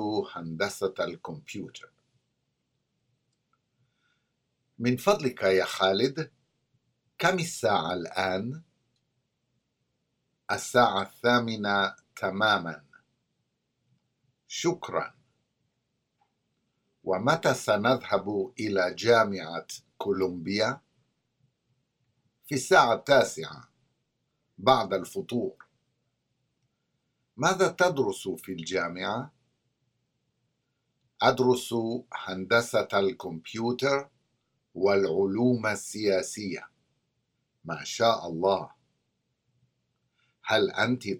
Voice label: Conversation; SALAMAAT